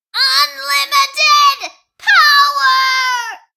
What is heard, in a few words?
Rage; Awesomenauts